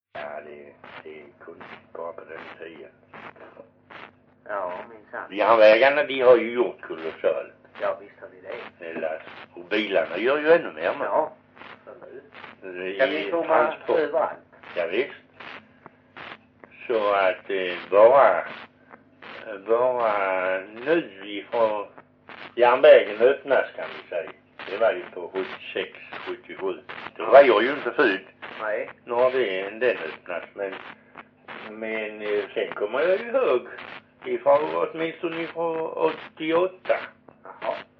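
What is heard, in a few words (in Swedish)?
Perstorp Skåne, Svenska sagor dialekter; Folkminnen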